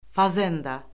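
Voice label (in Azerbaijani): "fazenda", Azerbaijani; Orfoqrafiya Lüğəti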